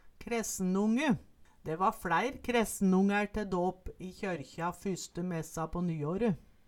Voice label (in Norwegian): kressenonge, Numedalsmål